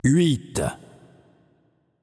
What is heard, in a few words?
announcer French count